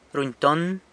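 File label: "runyton", San Lucas Quiaviní Zapotec; acts stupid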